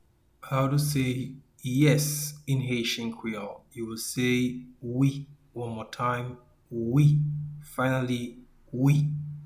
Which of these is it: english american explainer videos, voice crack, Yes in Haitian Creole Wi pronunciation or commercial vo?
Yes in Haitian Creole Wi pronunciation